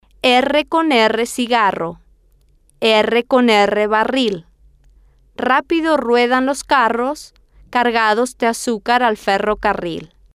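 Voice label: erre Con Erre Cigarro Erre Con Erre Barril, Spanish, female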